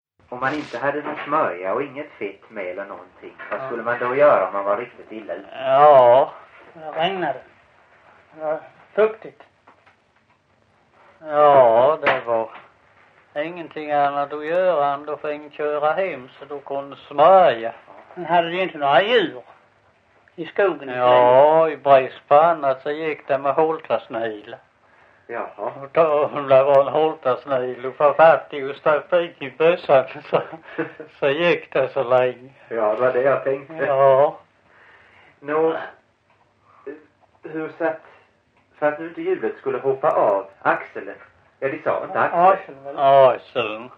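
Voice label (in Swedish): Visseltofta Skåne, svenska sagor dialekter; Folkminnen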